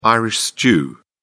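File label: "irish stew", British English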